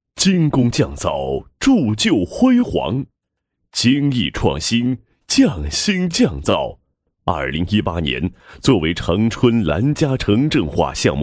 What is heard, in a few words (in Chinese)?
男 项目汇报 大气 舒缓; 长春兰家城镇化